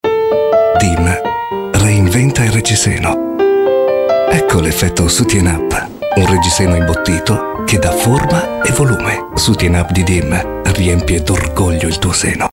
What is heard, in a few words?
spot; Dim